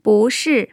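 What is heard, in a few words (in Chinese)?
"bú shì", Mandarin; tone changes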